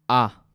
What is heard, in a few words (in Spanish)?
Voz aburrido